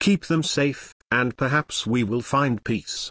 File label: Male Troll; Brukan; Scabbs